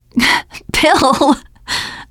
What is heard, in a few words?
name, teen girl; bill